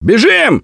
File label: yell run; Gambler